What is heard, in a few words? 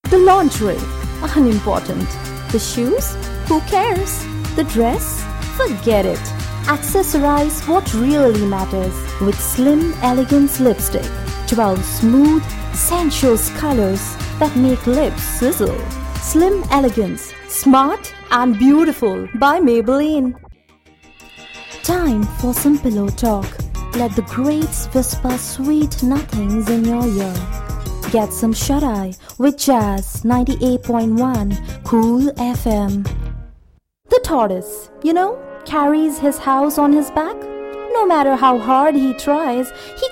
VO English